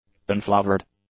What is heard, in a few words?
"unflavored", english; adjectives